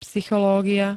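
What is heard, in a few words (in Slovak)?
"psychológia", Slovak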